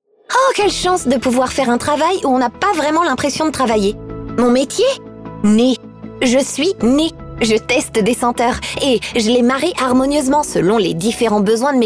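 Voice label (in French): Démo corporate, Comédienne, Voix off; BE EFFICIENT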